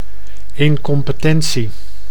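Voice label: "incompetentie", Dutch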